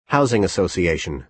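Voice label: "housing association", American English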